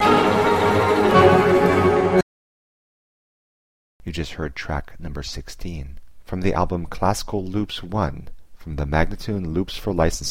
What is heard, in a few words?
american baroque spoken